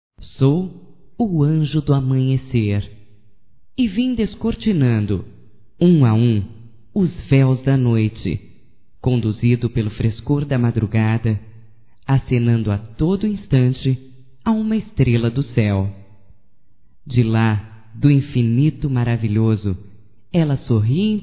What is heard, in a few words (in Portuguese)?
DEIXA EU AJUDAR, fem; Telemensagens 2017; Amizades